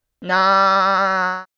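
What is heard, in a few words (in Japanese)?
"な", Japanese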